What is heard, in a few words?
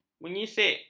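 "menyisik", Malay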